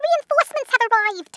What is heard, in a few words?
"drop", Brooklyn; Worms Armageddon